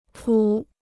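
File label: "谱", Chinese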